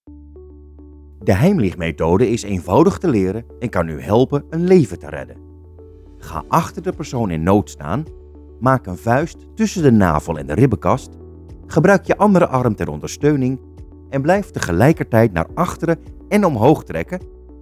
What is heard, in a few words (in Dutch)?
E-learning demo; Beluister hier hoe ik kan klinken in jouw e-learning; voice-over